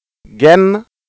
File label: genn, wolof